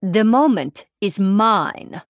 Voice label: Female Draenei MIRROR START

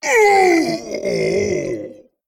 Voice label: Male Abomination Death

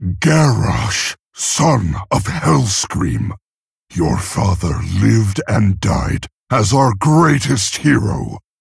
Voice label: Male Orc

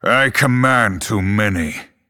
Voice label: Male Night Elf ERROR FULL MINIONS

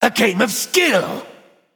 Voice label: Male Nightborne Attack

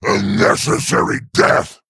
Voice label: Male Quilboar Attack

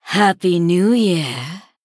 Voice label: Female Sanlayn Emote HAPPY NEW YEAR LUNAR

